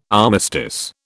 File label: "armistice", British